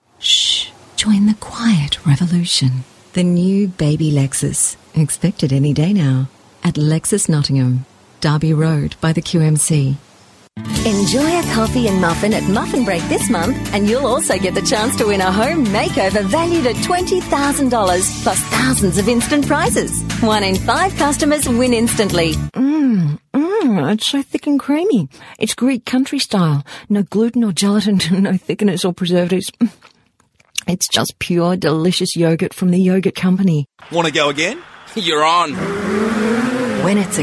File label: commercial